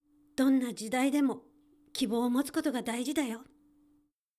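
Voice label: female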